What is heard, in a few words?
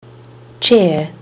"chair", kiwi english